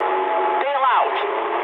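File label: English bailout mood med; speech; War Thunder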